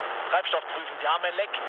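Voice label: German tank damaged mood low, speech; War Thunder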